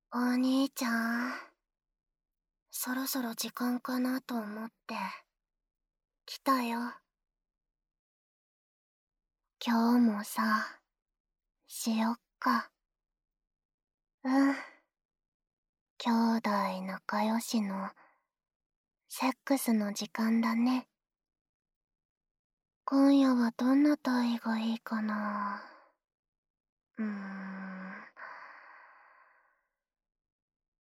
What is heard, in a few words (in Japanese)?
お互い束縛し合いながら共依存えっち, ASMR